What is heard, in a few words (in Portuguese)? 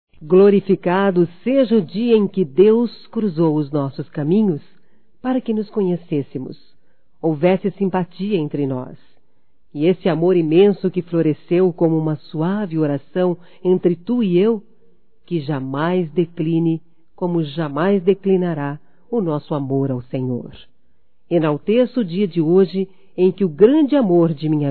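EVANG ANIVERSÁRIO DE NAMORADA, Feminino; Aniversarios; Telemensagens; Telemensagens 2017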